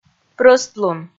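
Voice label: "прӧстлун", Komi, female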